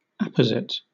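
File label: "apposite", British English; pronunciations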